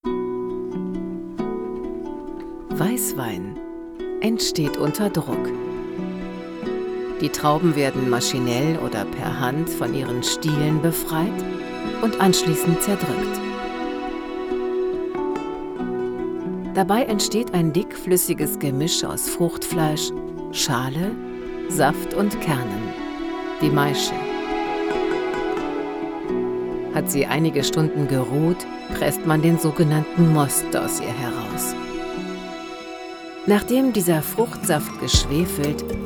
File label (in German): Doku Stil Weinproduktion Sprache Mix